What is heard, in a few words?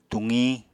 "tuqiñ", apatani